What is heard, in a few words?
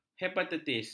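"hepatitis", Malay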